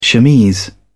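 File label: "chemise", British English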